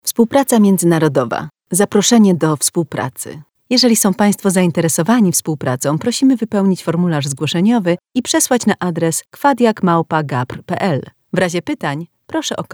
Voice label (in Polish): pl female; lektoring